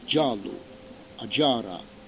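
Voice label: "giallu", Corsican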